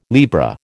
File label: "Libra", American